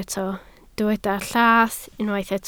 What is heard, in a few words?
"llath", Welsh